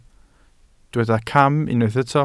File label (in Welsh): "cam", Welsh